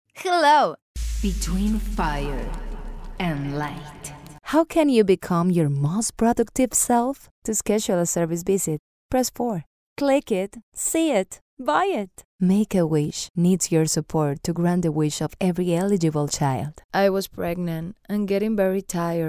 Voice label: VO English